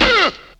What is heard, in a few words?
"uh" male scratch; ooh ahh; uh um